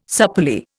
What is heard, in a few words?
"supplely", Indian